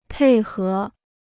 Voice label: "配合", Chinese